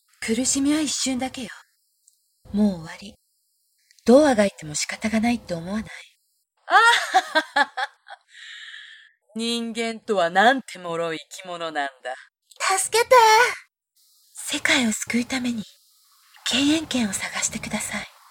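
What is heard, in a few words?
Japanese, game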